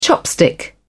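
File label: "chopstick", British English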